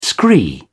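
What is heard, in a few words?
"scree", British English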